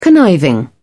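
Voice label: "conniving", British English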